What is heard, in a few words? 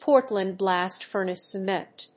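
"Portland blast furnace cement", English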